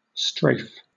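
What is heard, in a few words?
"strafe", British English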